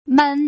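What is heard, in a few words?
"men", Mandarin Chinese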